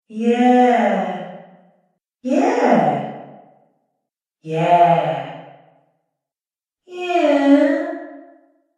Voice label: Yeahs With Reverb Female Voice; recorded voice